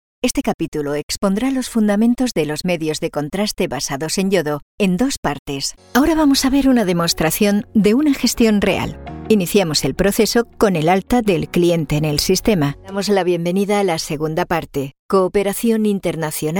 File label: spanish e-learning